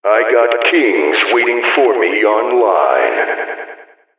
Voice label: echo eq'd; duke kings online